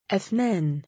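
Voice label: female name "afnaan", Arabic; أَفْنَان; ’afnān; アフナーン; Afnaan、Afnan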